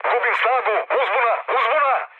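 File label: Serbian damaged engine mood med, speech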